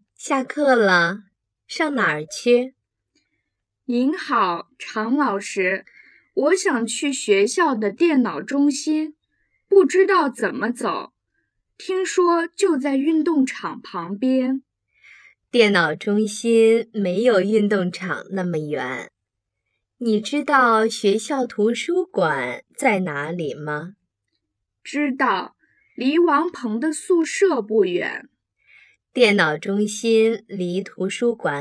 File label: lesson dialogue slow